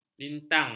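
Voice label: "lintang", Malay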